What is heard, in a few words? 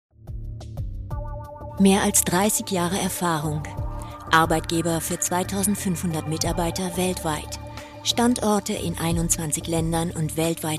german female corporate